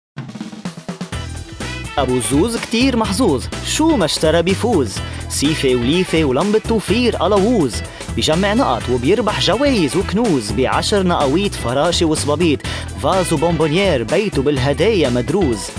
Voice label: male, Arabic and English USA, Lebanese Arabic commercial